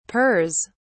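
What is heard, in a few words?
"pers", British English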